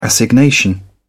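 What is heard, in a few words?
"assignation", British English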